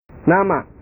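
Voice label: "nama", Indonesian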